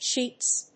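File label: "Sheeps", English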